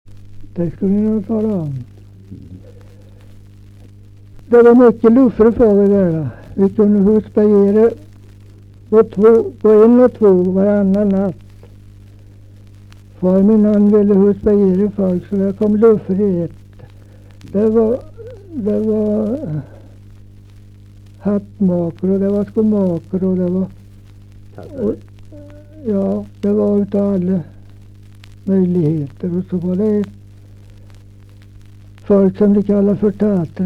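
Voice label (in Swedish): Nysund Närke, dialekter; Folkminnen; Svenska sagor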